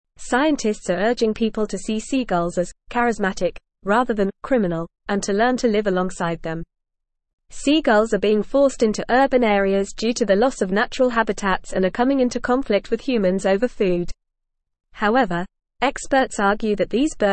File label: English Advanced FAST Reading; Seagulls in Urban Areas Coexistence and Conservation Efforts